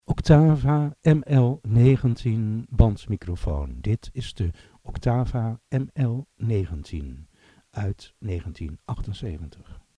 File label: Oktava ML-19 sound NL